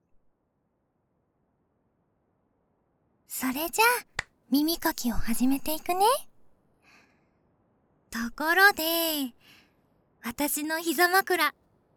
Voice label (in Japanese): キミを癒やす耳かき, ASMR; 反転